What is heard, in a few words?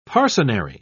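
"parcenary", British English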